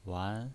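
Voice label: "wan", pinyin voice, second tone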